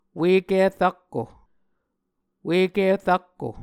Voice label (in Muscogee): "Ueki Rakko", Muscogee; Mvskoke Words with Repeated Consonants; A Dictionary of Creek/Muskogee; SIL International